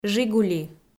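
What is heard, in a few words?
"жигули" Komi, female; audio dict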